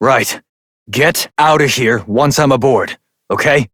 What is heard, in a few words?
Adult Op, en; New War